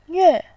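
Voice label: "nve", Mandarin, tone 4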